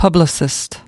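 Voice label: "publicist", US English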